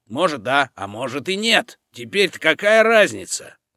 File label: german